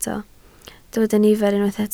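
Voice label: "nifer" Welsh, spoken word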